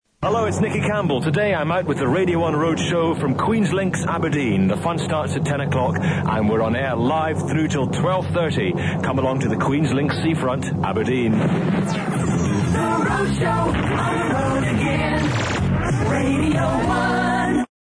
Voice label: roadshow trailer